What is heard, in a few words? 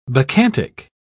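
"bacchantic", British English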